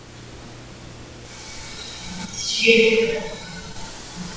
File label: Male disembodied voice in Hall; VOICE ISOLATED; MEETING AND PERFORMANCE HALL